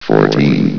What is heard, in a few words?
"fourteen", vox